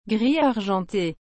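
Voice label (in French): "gris argenté", French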